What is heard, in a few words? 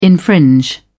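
"infringe", British English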